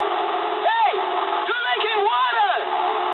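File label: damaged water mood high, speech English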